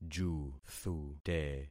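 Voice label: "juthute", concatenated syllables; Affixing experiment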